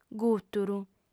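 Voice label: "gùturu", Sardinian, female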